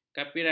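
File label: "kapiran", Malay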